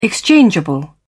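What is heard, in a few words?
"exchangeable", British English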